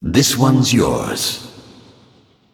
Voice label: Male Ethereal Emote Concede

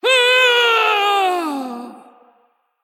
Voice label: Female Elemental Death

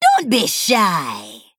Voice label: Female Troll, Attack

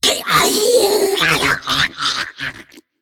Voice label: Male Nerubian Death

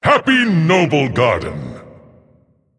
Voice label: Male Titan Forged Emote HAPPY NOBLEGARDEN

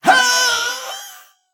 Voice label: Male Mech Death

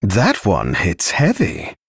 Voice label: Male Dragon; Recruit Large Minion

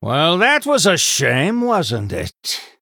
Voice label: Male Human Combat Loss

